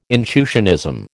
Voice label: "intuitionism", American

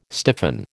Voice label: "stiffen", American

